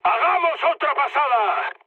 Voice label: bomb failure, mood high, speech; War Thunder